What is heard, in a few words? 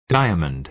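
"diamond", American English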